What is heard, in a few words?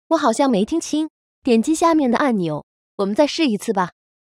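wake up error, Chinese; Bixby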